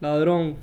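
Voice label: "ladron", Spanish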